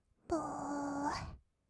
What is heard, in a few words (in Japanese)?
"ぼーっ", kawaii voice; ASMR; 色々なワンショット